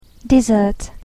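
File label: "dessert", English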